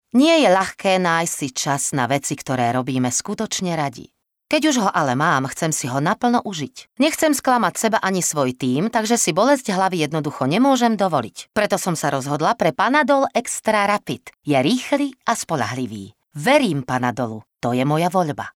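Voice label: Female Slovak commercial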